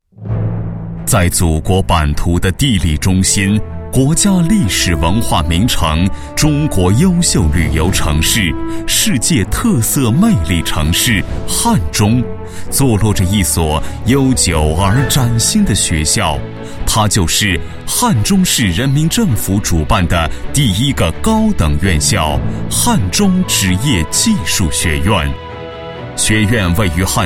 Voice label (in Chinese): 男 学校, 国语男声配音; 汉中职业技术学院